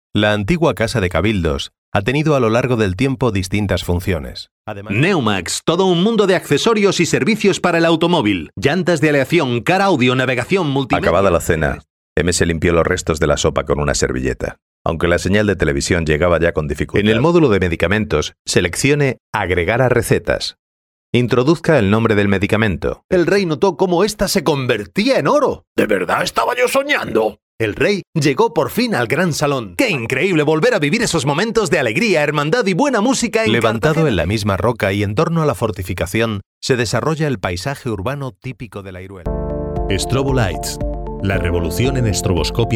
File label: spanish euro male